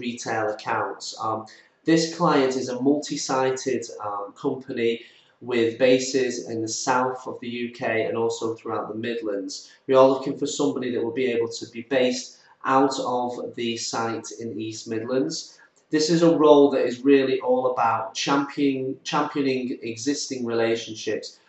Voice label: commercial